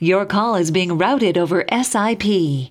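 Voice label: "route sip", English; asterisk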